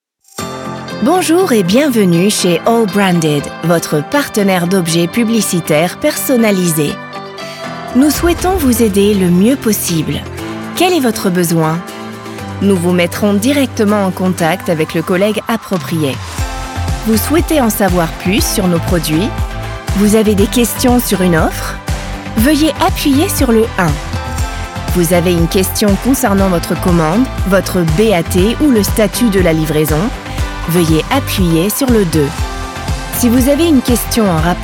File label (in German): Telefonansage FR OPTIONS; MitVorlauf; STEREO 16Bit 44kHz; Allbranded